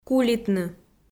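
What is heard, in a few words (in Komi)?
"кулитны", Komi-Zyrian, female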